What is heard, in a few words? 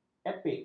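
"epik", Malay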